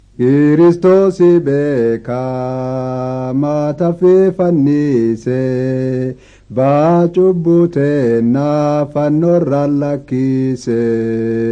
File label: words of life borana gabbra